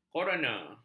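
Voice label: "koroner", Malay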